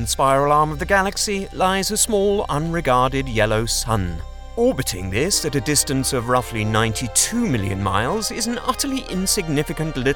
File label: Narrative